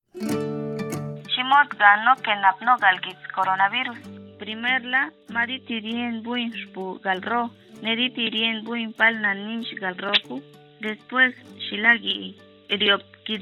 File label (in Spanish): covid zapoteco; lachiguiri